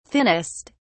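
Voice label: "thinnest", British English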